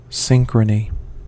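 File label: "synchrony", American English; pronunciation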